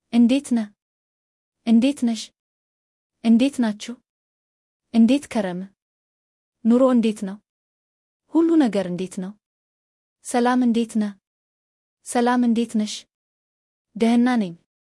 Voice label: amharic how are you; greetings and salutations in amharic